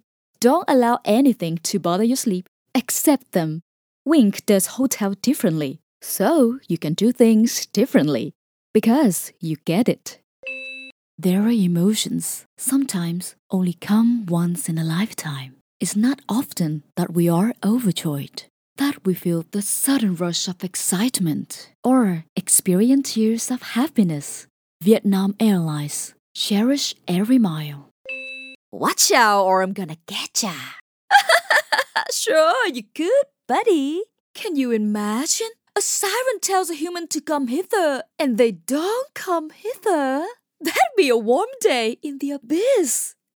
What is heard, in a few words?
english demo reel, Professional Native Vietnamese Voice Artist